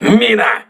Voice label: voice message mine, crew ships russian